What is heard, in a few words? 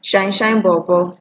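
"shine shine bobo", Nigerian Pidgin, female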